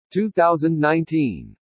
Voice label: "2019", English

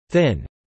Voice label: "thin", English

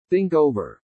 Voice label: "think over", pronounce

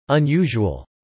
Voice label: "unusual", English

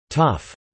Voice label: "tough", English

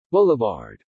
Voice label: "boulevard", English

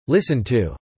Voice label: "listen to", English

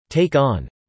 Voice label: "take on", English

